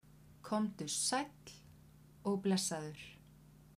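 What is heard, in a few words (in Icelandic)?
"komdu sæll og blessaður", kvedjur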